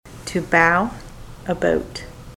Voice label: to bow about, ontario